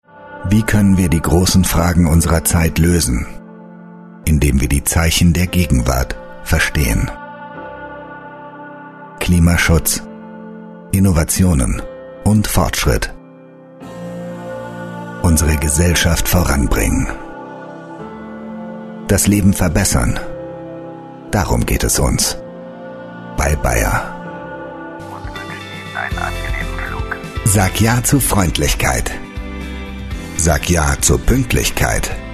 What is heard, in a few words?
german voice over male narrator